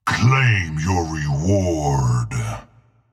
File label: announcer claim your reward